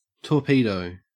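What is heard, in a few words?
"torpedo", Australian English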